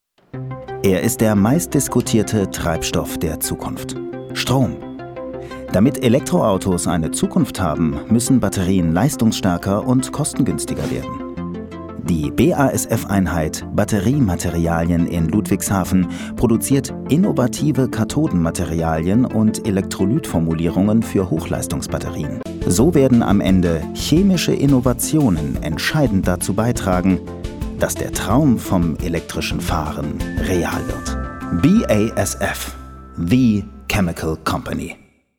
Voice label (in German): Imagefilm; BASF